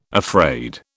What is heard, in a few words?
"afraid", British